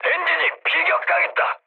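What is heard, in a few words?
Korean damaged engine mood med; War Thunder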